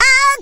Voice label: "oh", cartoon; ooh ahh